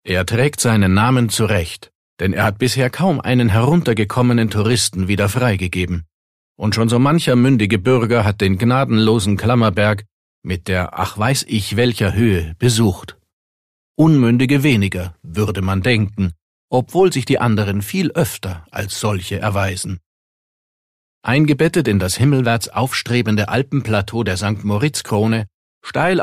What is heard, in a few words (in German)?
kommentar neutral